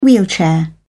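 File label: "wheelchair", British English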